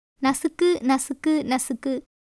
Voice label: Tamil

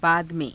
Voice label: "baada me^", hindi

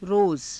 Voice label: "roza", hindi

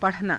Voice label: "pa_rhanaa", Hindi

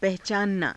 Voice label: "pahacaananaa", Hindi

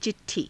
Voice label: "ci_t_thii", Hindi

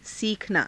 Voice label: "siikhanaa", hindi; सीखना; [他動]学ぶ

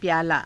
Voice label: "pyaalaa", Hindi

